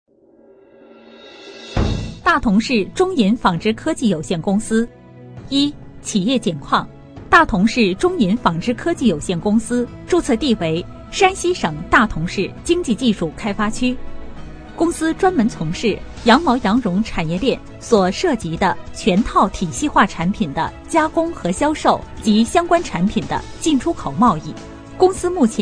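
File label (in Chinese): 女专题 工程汇报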